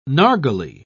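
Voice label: "narghile", British English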